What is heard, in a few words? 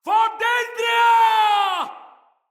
turned tides afar, soldier male, en; Battle; Barks; Dialog; Dendrit